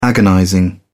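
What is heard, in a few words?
"agonizing", British English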